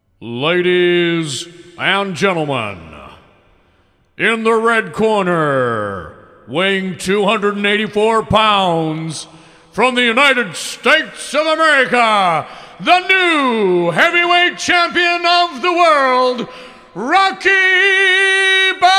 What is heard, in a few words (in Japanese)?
リングアナウンサー（スタンダード）